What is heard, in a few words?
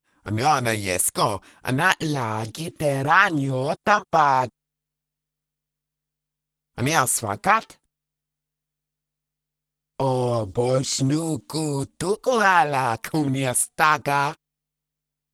talk Argue; Singles Flirt Up Your Life